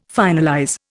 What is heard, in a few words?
"finalize", Indian